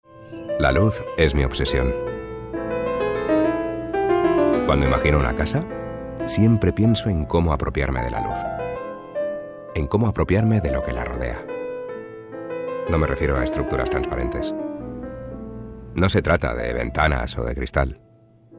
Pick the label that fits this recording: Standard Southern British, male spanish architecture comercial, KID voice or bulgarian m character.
male spanish architecture comercial